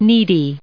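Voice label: "needy", English, us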